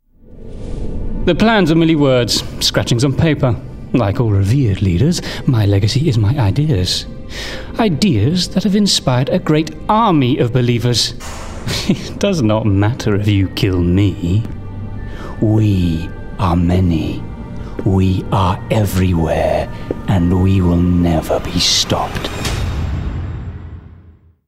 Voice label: Gaming Character Villain RP

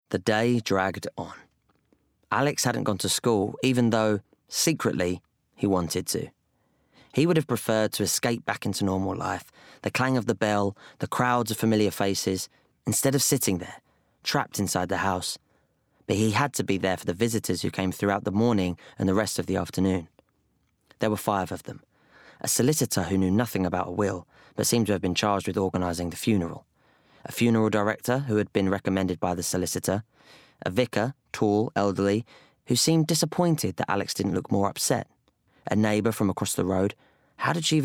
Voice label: Bookread; Stormbreaker

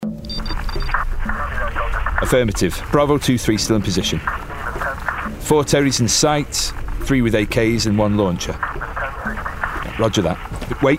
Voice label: Gaming Soldier Northern

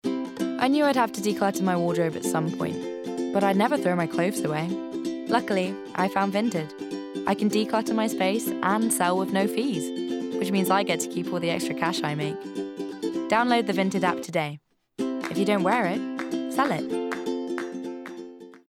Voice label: Commercial; Vinted

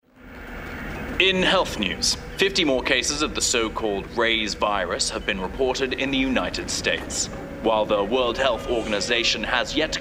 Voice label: Gaming Newsreader RP

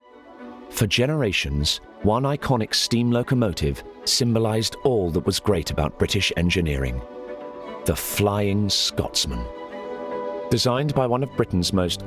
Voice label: Documentary

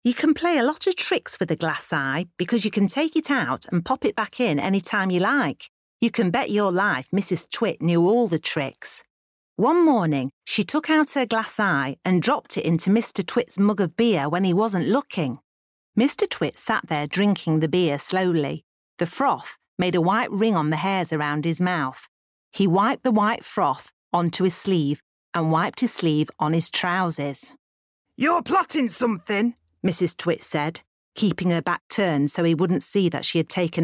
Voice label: Honey Voice Reel

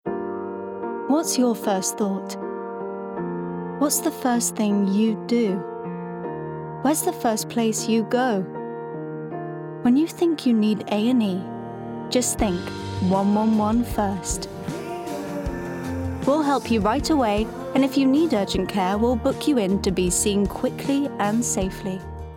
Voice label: Commercials Montage; Actress

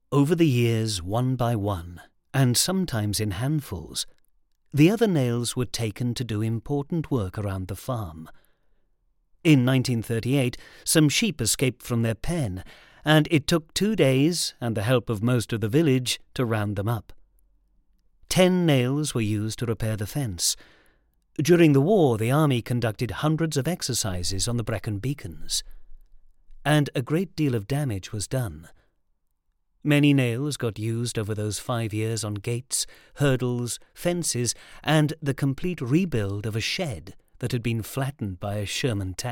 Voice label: Narration Extract; The Nail That Bent

